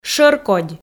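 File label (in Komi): "шӧркодь", Komi, female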